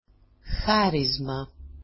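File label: "charisma", Greek; χάρισμα; ['xarizma]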